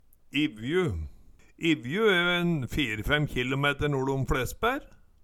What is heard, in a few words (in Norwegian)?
"Ivju", Numedalsmål; Særnamn (stadnamn); Evju